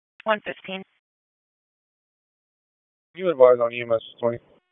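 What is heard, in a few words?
Police Southwest District Dispatch, p25; Raleigh Police Southwest District Disp; wake p25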